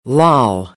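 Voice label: "loll", American English; AmericanBritish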